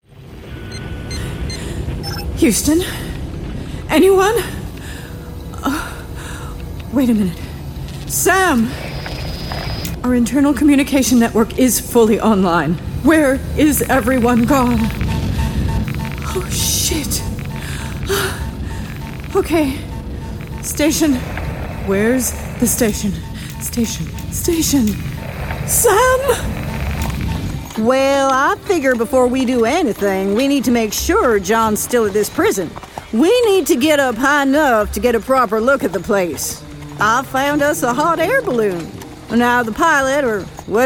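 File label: American English, Video Game; voice demos; GoLocalise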